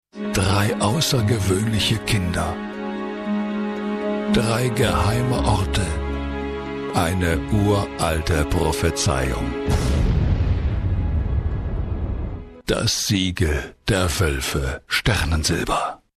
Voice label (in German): Buchtrailer; Hörproben; Das Siegel der Woelfe